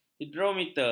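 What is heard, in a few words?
"hidrometer", Malay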